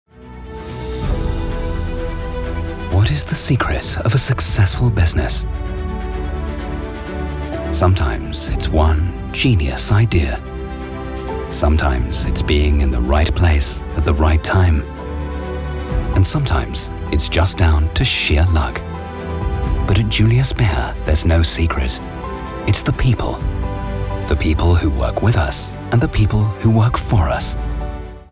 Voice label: Imagefilm; Julius Baer

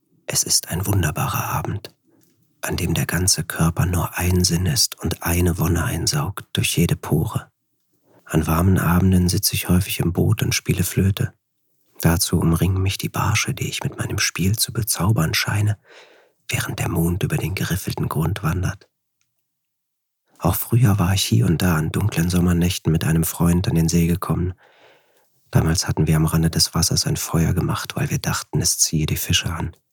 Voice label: Hoerbuch

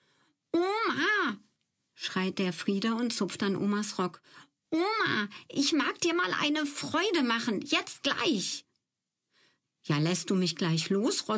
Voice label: Hoerbuch Kinder, Children's Voice (Kinderstimme), German; Audiobook (Hörbuch)

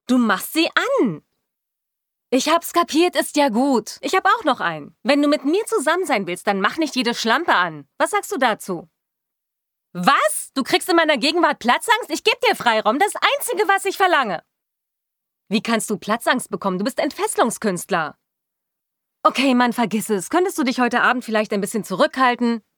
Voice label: Synchron genervt wuetend; LOFT VOICES

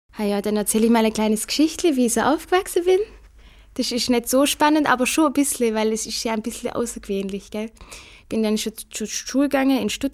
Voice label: dialekt

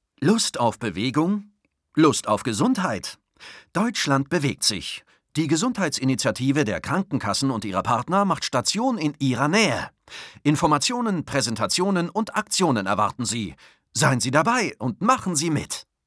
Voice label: werbung dynamisch

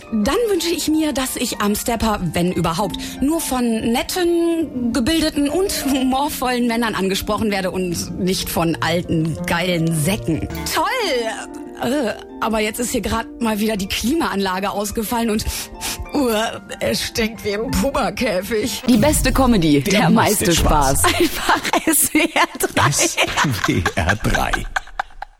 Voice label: comedy stationvoice; swr3 comedy

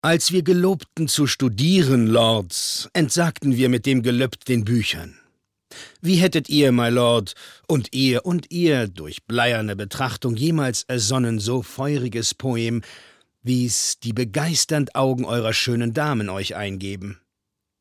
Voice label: synchron

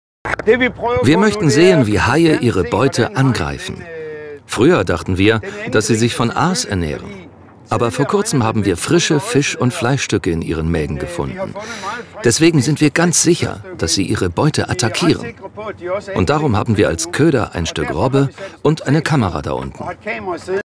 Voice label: DE Voiceover Groenland; LOFT VOICES

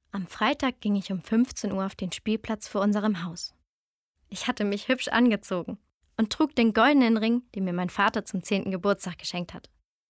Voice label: Erzaehlung; Goldeneer Ring

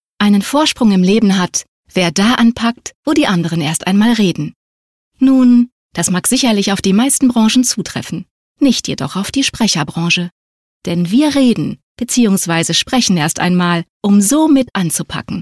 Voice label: Imagefilm

